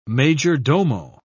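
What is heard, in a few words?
"major-domo", British English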